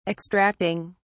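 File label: "extracting", British English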